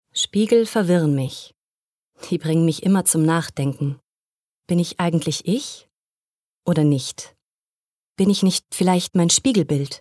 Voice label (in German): innerer Monolog